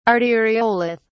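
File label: "arteriolith", English, British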